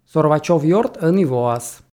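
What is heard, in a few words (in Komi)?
ёрт ӧні воас, Komi, female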